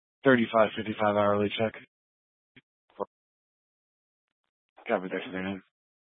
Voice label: Police Department Dispatch, scanner

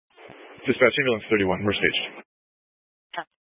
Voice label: Fire Department Dispatch, scanner; Grand Junction

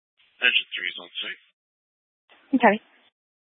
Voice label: Fire Dispatch, scanner

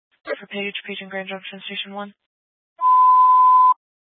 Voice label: Grand Junction Fire Department Dispatch

